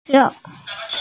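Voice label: "se4", Mandarin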